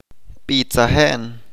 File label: "To sing", Chalchiteko